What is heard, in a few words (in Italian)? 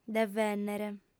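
"devènnere", lingua sarda, female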